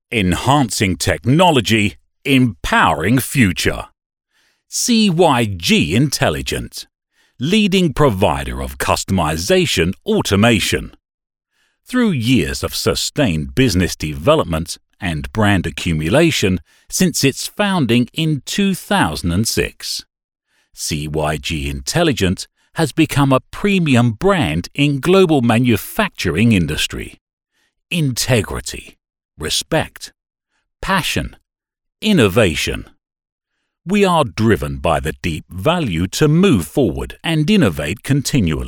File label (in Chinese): 外籍英式英语大气宣传片